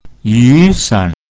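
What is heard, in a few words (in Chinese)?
"yu san", Mandarin Chinese pinyin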